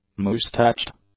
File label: "moustached", English; adjectives